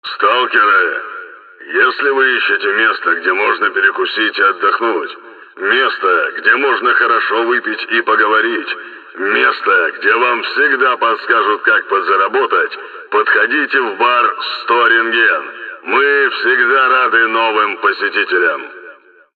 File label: megaphone